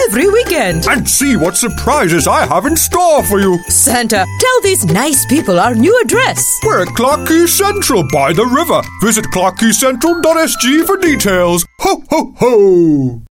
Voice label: Asian English Christmas; CQVCENTRAL